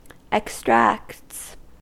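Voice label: "extracts", US English; pronunciations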